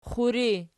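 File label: "xuri" nominative, Archi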